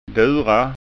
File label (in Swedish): "dura", skanska